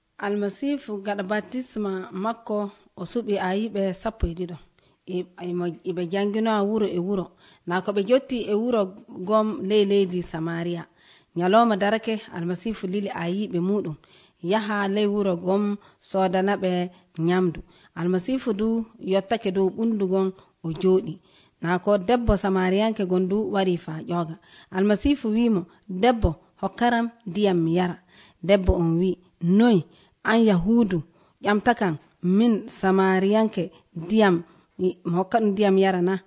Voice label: Living Water Jesus et la femme Samaritaine Western Niger Fulfulde